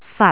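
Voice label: "fat6", Cantonese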